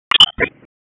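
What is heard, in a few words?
Dispatch, p25; wake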